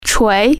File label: "hammer", Chinese